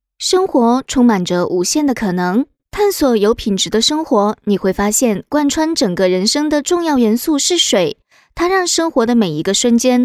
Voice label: 台湾腔 健康水杯; 家得麗健康水杯

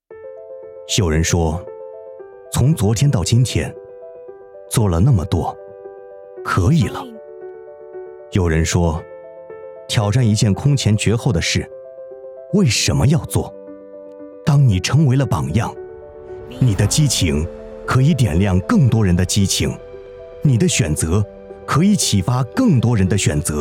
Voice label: 走心旁白

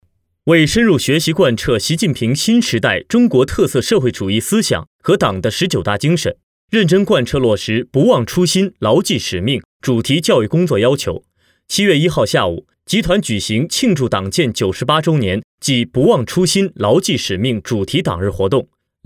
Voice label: 新闻

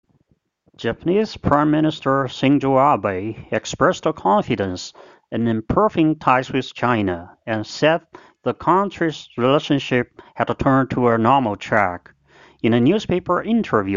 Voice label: 英语新闻

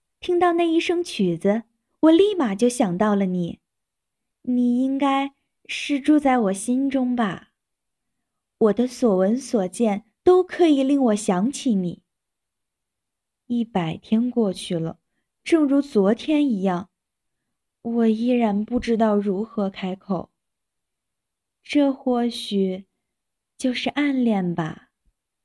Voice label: 情书 配音

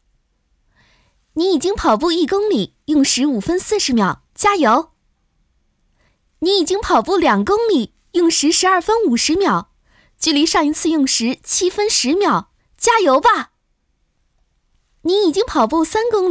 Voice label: 运动试音参考

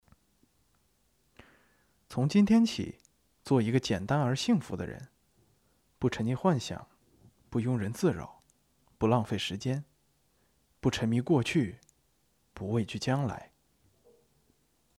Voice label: 情感文案

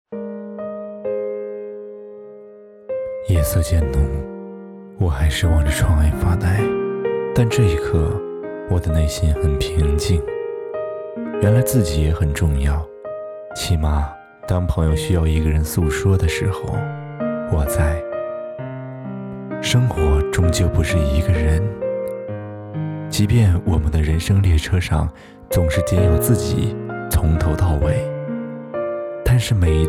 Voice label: 情感电台

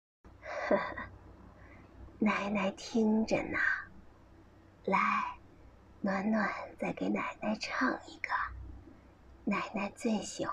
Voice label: 老年音

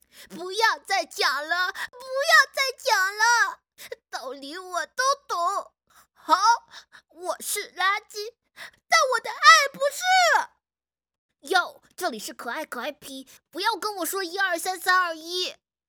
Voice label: 模仿可爱

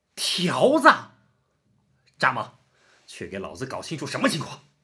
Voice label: 龙套男; 火鸡

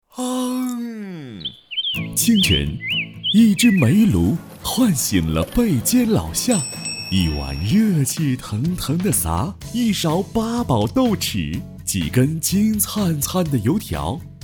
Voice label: 电台宣传片

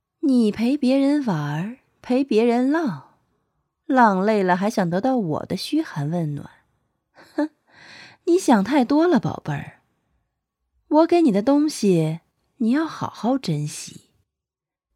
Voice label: 御姐音

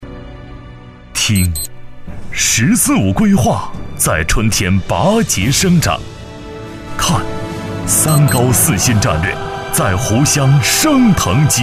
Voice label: 宣传片直播春天

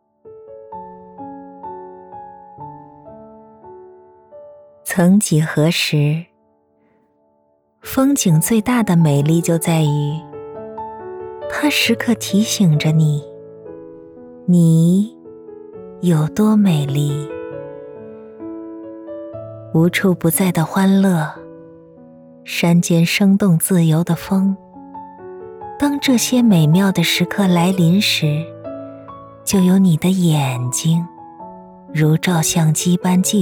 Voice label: 旅游广告宣传片御姐温暖

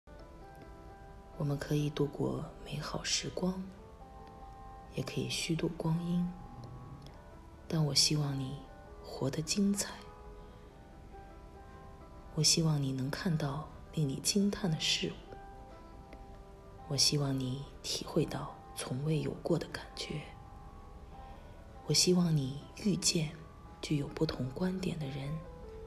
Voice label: 旁白; 务虚笔记小段

